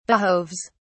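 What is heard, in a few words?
"behoves", British English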